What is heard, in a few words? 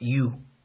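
"you", Nigerian Pidgin, male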